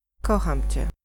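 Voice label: i love you, female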